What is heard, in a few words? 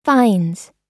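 "finds", US English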